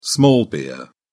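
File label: "small beer", English, British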